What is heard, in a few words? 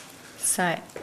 "sae", Nukuoro; Nukuoro Talking Dictionary